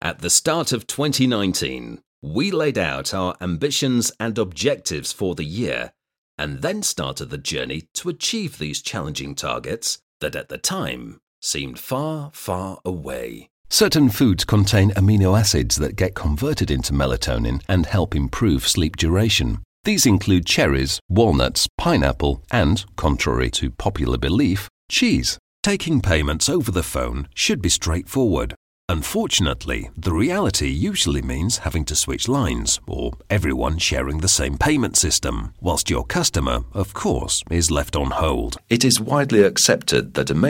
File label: narration samples